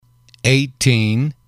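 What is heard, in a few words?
"eighteen", English, male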